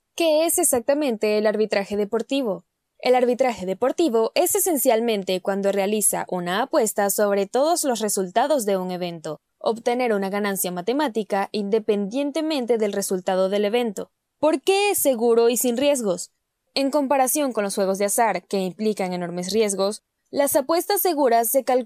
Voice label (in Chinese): 西班牙语女 外语 小语种